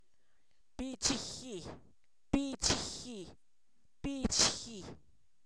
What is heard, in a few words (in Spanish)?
pitaya, Zapoteco, san miguel albarradas; comestible